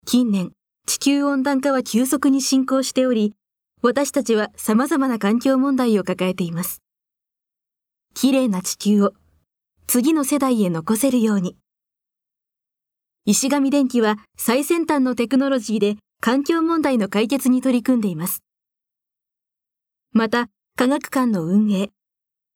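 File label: narration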